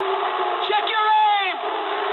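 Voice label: English player friendly fire mood medium; speech; War Thunder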